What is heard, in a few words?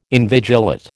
"invigilate", American